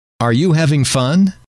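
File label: are you having fun, male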